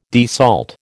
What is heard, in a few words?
"desalt", American